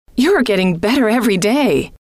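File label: you are getting better every day, female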